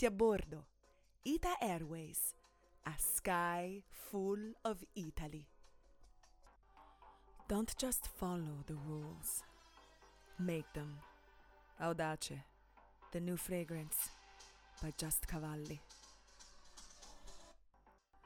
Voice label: female, Kenyan and Italian, English with Italian accent commercial; devine voices